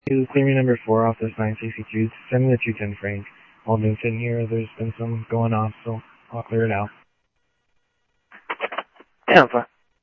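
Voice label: Sheriff East County, scanner audio trunk; South Scottsdale; MCSO - EAST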